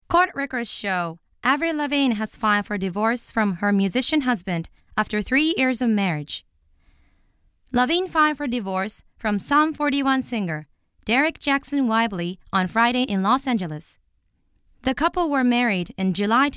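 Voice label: narration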